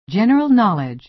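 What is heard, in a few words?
"general knowledge" American English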